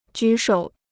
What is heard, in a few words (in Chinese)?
"举手", Chinese